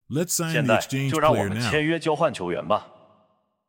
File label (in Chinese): 现在就让我们签约交换球员吧, 引导对话男; nba